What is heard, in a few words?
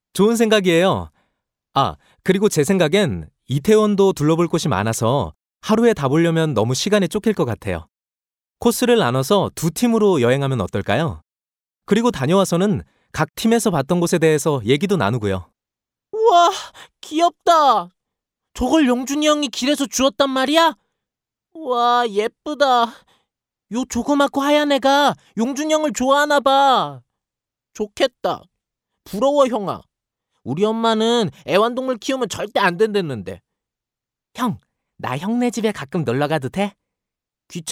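Korean, male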